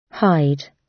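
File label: "hide" British; Flora and fauna, agriculture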